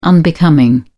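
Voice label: "unbecoming", British English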